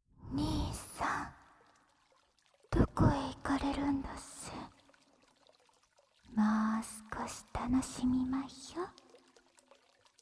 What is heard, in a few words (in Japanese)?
超密着×吐息＆耳舐め, ASMR; トラック３「超密着×吐息＆耳舐め湯中対面座位」